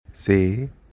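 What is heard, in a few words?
"Se" Sambalpuri; She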